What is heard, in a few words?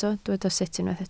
"sut", Welsh